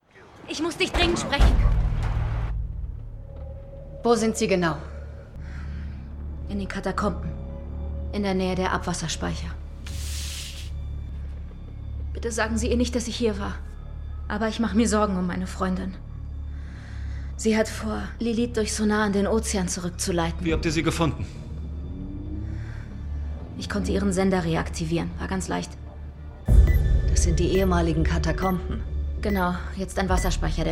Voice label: Synchron; Im Wasser der Seine

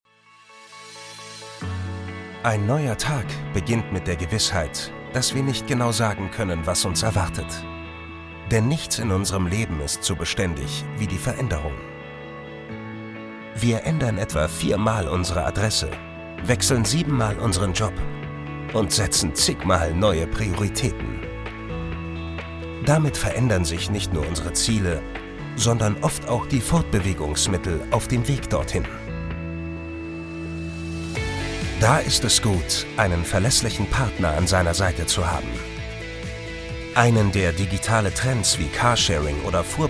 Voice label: Imagefilm, maenner; Porsche Bank

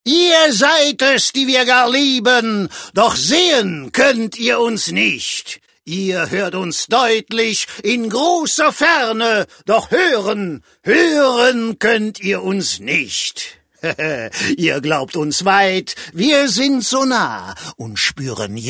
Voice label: koelsch; maenner

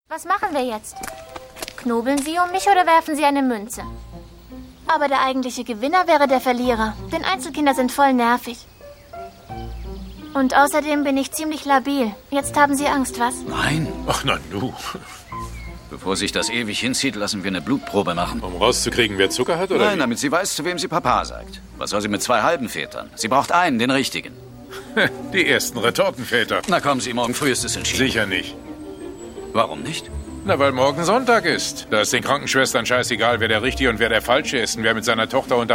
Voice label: Synchron, frauen

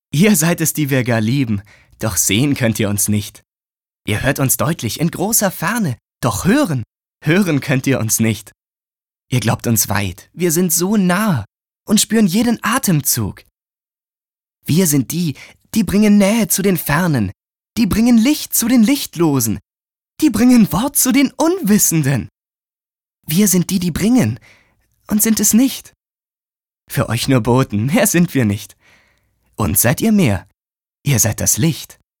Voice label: froehlich, maenner; Sprecher

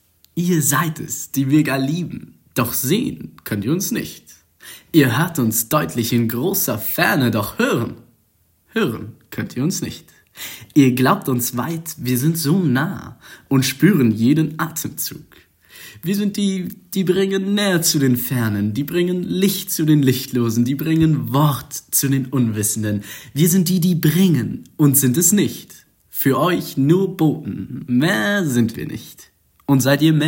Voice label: froehlich, jungen

